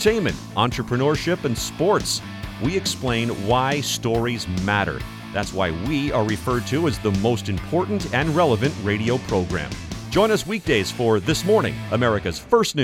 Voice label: Generic Promo (WITH MUSIC)